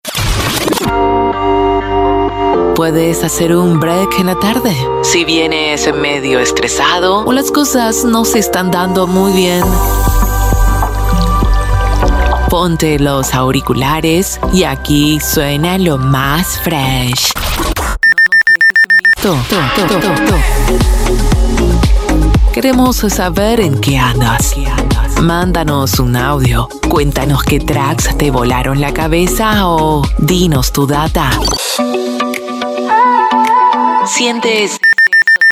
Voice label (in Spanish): Arte Ambient FEM Otono